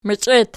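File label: "meichet", nominative, Archi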